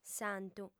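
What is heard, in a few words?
"santu", female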